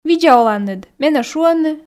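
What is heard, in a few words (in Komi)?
видза оланныд менӧ шуӧны, Komi, female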